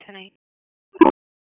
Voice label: Police Southwest District Tac 1; Raleigh; wake